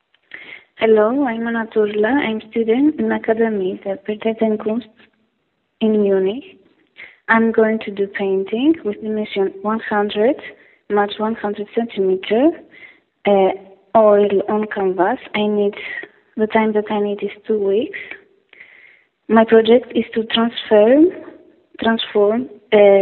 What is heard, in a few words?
Voicemail